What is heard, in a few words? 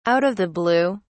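"out of the blue", British English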